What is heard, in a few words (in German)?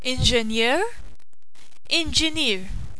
ingenieur, Maltesisch; berufe